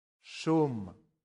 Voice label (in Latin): "sum", latin; santillana